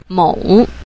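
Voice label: "mou", Mandarin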